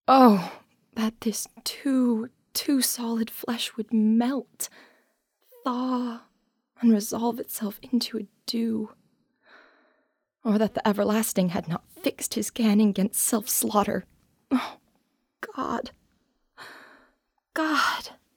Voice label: Dramatic Monologue